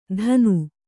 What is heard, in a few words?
"ಥಾನು", kannada